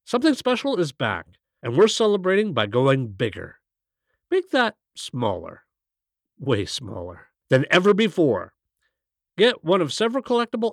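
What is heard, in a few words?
English Canadian; McDonalds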